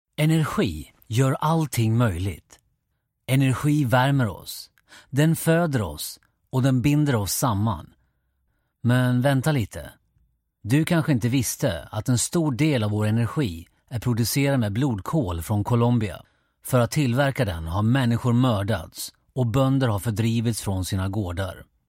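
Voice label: Swedish male Corporate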